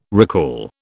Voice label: "recall", British

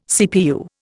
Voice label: "CPU", Indian

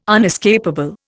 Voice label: "unescapable", Indian

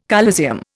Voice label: "coliseum", Indian

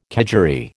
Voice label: "kedgeree", American

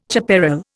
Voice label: "chaparral", Indian

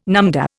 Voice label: "numdah", Indian

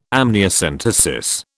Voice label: "amniocentesis", British

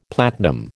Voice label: "platinum", American

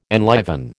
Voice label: "enliven", American

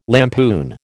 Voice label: "lampoon", American

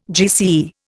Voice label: "GCE", Indian

